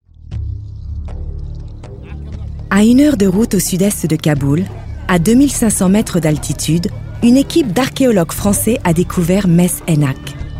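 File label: French Narration; GoLocalise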